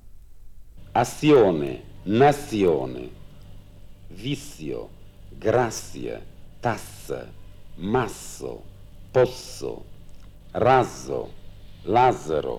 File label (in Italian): Fonemi Lunghi Bologna